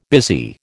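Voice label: "busy", American English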